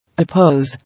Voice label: "Oppose", English